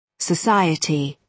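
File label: "society", British English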